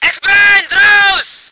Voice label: voice ger vehicle